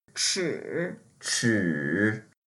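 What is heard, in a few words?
"chi3", Mandarin Chinese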